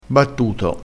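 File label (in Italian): "battuto", vocabolario dialettale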